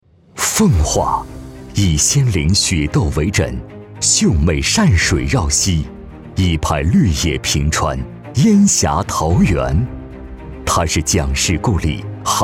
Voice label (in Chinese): 男 政府 大气 稍激昂; 奉化